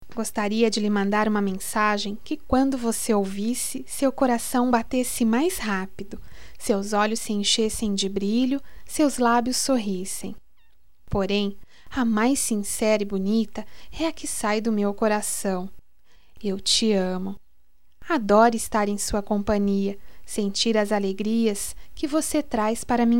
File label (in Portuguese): Amo seu jeito, fem; Amor; Telemensagens 2017